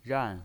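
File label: "ran", Mandarin Chinese, fourth tone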